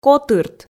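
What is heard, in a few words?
"котырт", Komi, female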